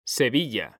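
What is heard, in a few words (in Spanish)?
"sevilla", male; mekanta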